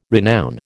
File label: "renown", American